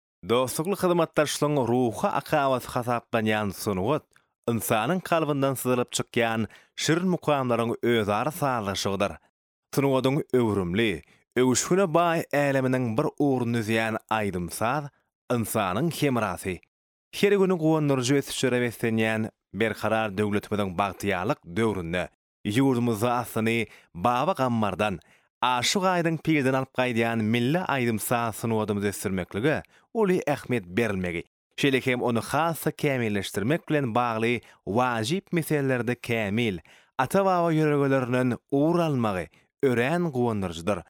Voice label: turkmen male

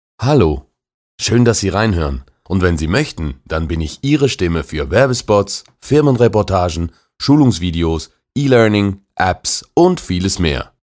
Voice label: german male; dictor

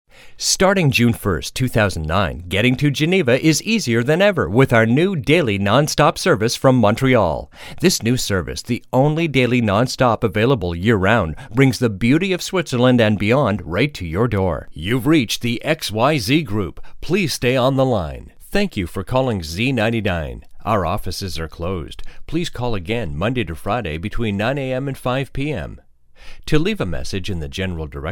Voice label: english canadian male; dictor; dictor-sounds

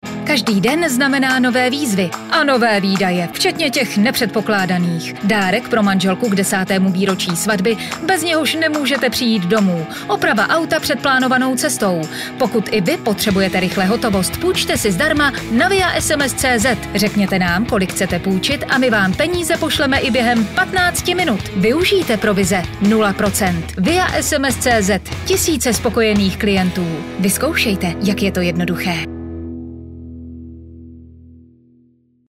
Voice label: cz female, dictor

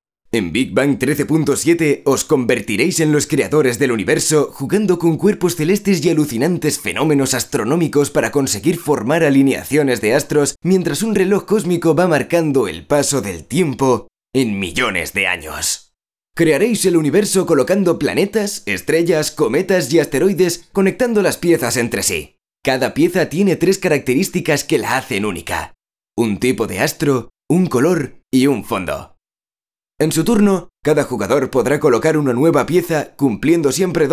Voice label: spanish european male

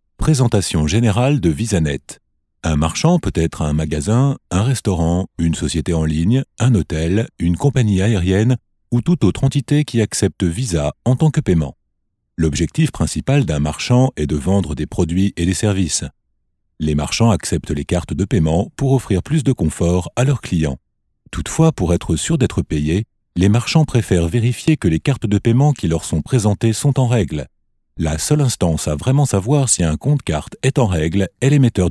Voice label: french male; dictor; dictor-sounds

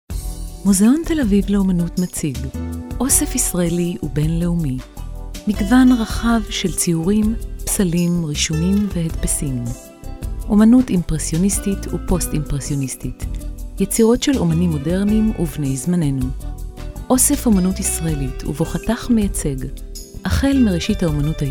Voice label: hebrew female; dictor; dictor-sounds

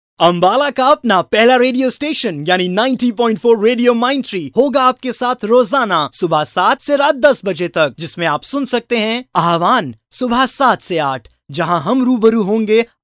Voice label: hindi male

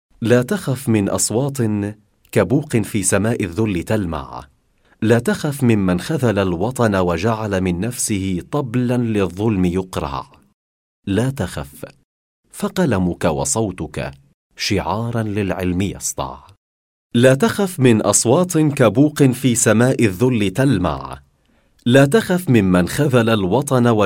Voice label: arabic male

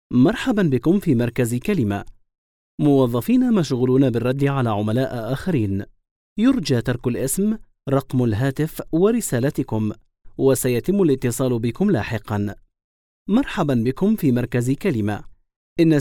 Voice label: arabic male voice; dictor; dictor-sounds

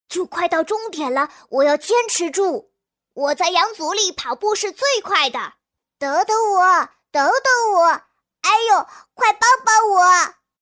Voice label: chinese boy female; dictor; dictor-sounds

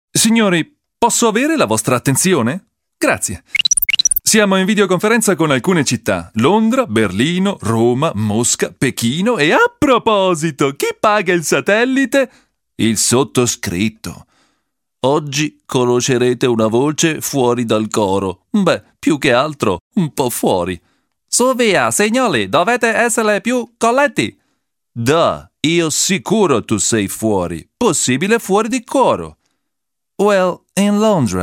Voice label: italian male

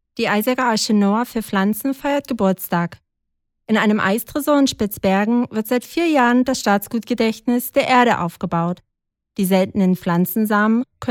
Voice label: german female; dictor

